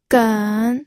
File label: "gen3", pinyin audio